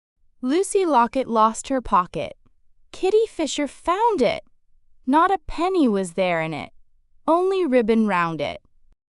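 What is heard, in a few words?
female US, classic rhymes; Lucy Locket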